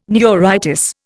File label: "neuritis", Indian